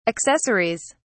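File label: "accessories", British English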